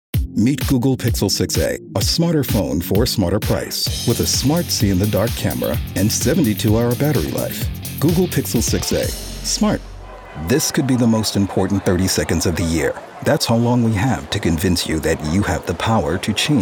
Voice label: Commercial; voice over